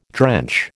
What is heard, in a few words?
"drench", American